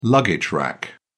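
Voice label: "luggage rack", British English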